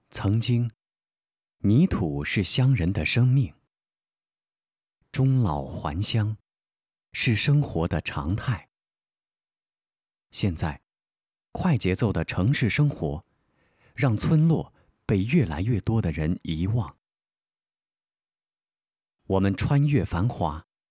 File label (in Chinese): 专题片; 溯源古峪村